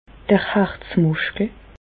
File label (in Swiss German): "harzmuschkel", Bas Rhin; medecine; myocarde